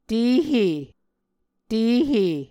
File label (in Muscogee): "tehe", Muscogee